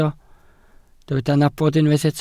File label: "nabod", Welsh